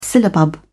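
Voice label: "syllabub", British English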